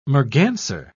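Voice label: "merganser", British English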